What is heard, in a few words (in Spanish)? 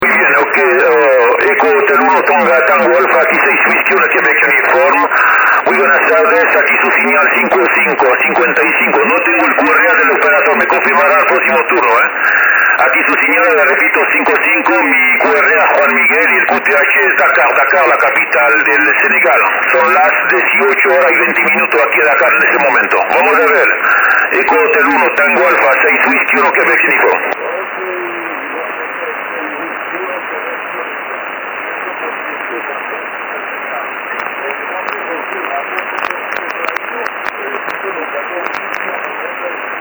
La banda Magica 50MHz, en QSO; en QSO IK14 - IN53